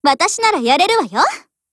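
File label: PHRASE, Japanese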